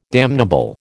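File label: "damnable", American English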